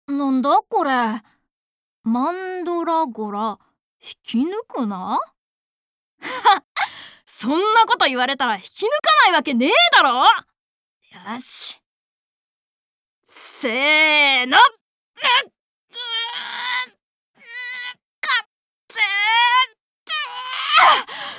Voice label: boy; 男の子